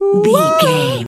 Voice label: voice fun character cute cartoon